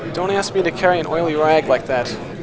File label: Noisy Speech Babble Noise